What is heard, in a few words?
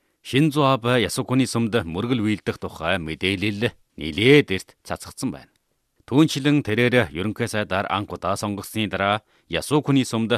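male, narration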